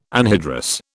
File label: "anhydrous", British